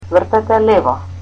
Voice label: Turn left, Macedonian

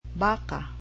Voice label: "cow", cebuano

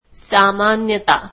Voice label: marathi usually

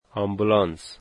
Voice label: "ambulance", Dari

